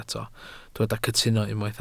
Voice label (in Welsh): "cytuno", Welsh